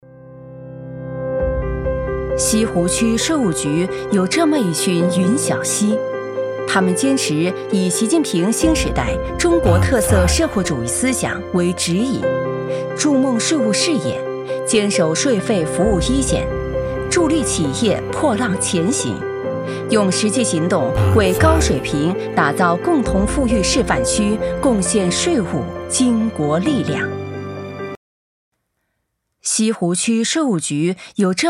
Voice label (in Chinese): 女 专题 宣传片 税务; 西湖区税务